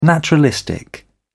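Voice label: "naturalistic", British English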